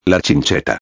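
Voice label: "la chincheta", Spanish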